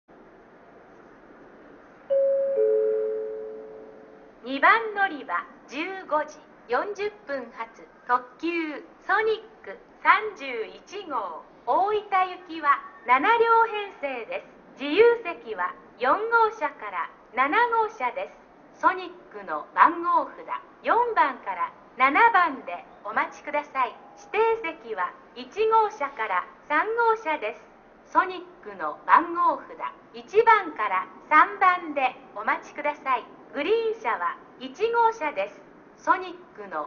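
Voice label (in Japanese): 2番のりば案内放送, 中津駅; ソニック31号 大分行き; ※乗車口はソニックの番号札; BOSEスピーカー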